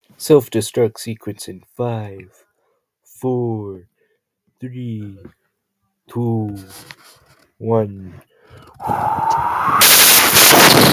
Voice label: voice message